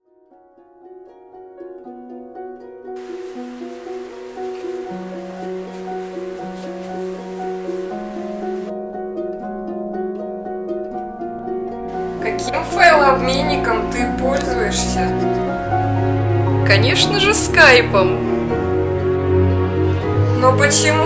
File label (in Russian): reklama skaypa